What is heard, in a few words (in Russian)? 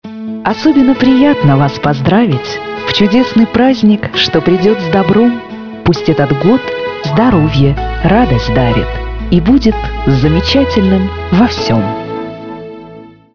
Новогоднее поздравление с музыкой